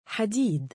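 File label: "7adiid" male, Arabic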